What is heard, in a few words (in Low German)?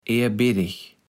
"eerbiddig", kaevels platt